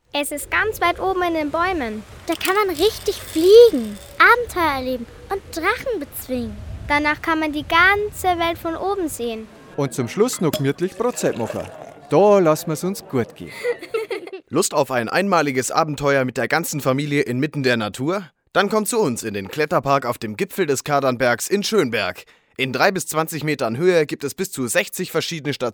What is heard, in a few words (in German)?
Werbung; Erlebnis Akademie